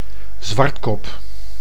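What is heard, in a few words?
"zwartkop", Dutch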